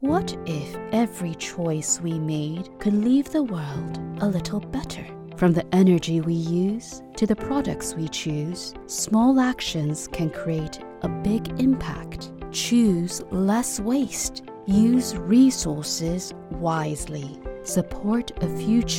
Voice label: English with slight Chinese accent promo, voiceover